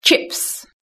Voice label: "chips", british english

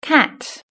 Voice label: "cat", british english

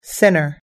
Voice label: "sinner", US English

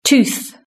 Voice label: "tooth", British English

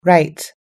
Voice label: "right", US English

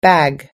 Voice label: "bag", US English

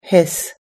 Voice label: "hiss", US English

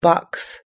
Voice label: "box", us english

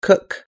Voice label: "cook", US English

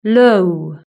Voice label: "low", british english

